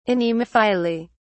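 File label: "anemophily", British English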